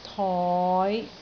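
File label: "toi5", Cantonese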